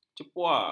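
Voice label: "cepoa", Malay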